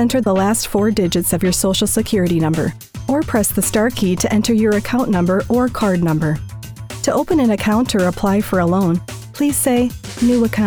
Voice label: Telephony US Bank